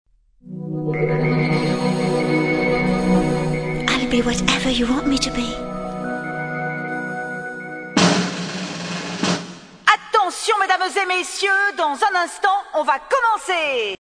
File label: Very Young and Announcement, Commercials; FR/GB